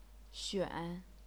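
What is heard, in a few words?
"xuan", chinese pinyin, third tone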